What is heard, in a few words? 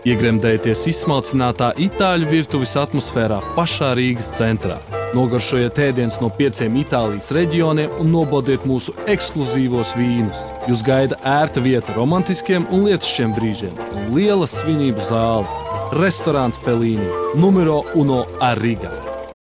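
Latvian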